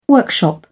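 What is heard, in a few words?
"workshop", British English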